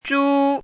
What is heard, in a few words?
"zhu1", Chinese; chinese-voice